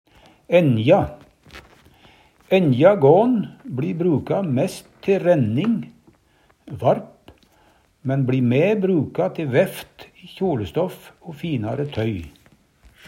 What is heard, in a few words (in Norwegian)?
enja gan, Numedalsmål